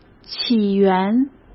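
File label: "起源", Mandarin Chinese; chinese-flashcards; hsk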